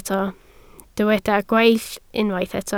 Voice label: "gweill", Welsh